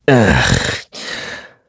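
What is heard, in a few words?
Vox Deny, Korean; Clause ice; kingsraid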